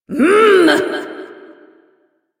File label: Frustration; Warframe; Entrati Doppelganger; Evil Twin